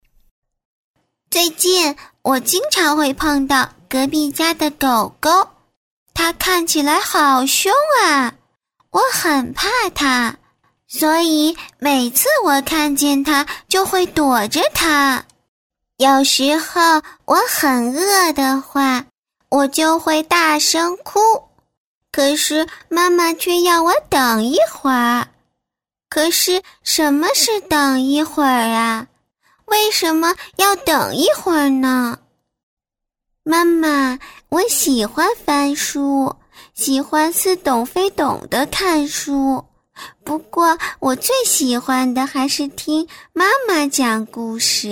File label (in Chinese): 女 角色 可爱童声 女孩, 国语女声配音